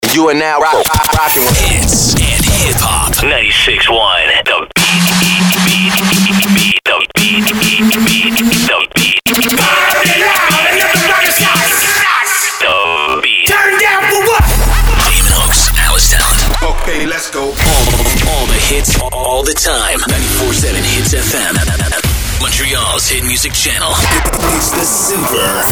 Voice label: radio chr, radio imaging